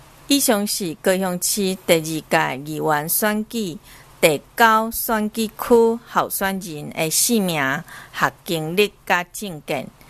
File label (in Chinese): 台語; 高雄市; 高雄市市長議員有聲選舉公報; 第九選區